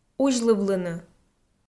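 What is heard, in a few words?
"узьлывлыны", Komi, female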